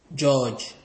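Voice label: "judge", Nigerian Pidgin, male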